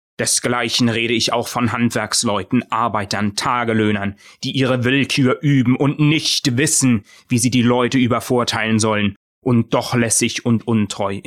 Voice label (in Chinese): 男声德语角色音人物独白